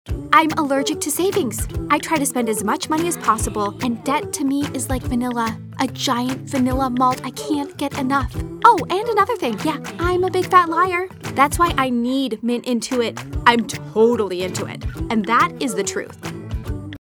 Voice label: Comedy Quirky Intuit